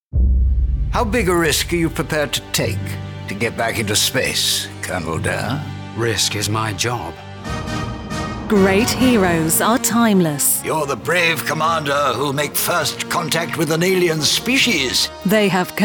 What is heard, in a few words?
Trailer; DanDare